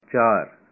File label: Punjabi, four